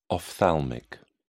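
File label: "ophthalmic", British English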